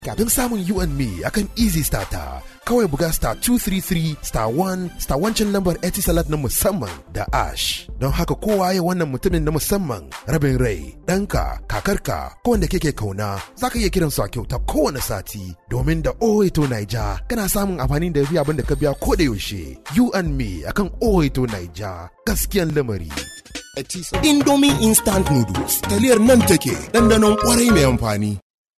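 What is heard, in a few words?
Hausa, male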